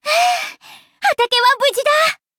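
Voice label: victory, Japanese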